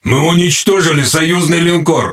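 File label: Russian Speech Destroy Ally Battleship; World Of Warships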